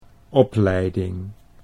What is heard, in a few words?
"opleiding", Dutch